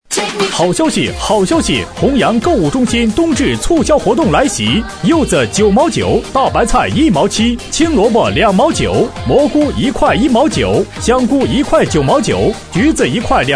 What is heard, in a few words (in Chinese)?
男声购物中心促销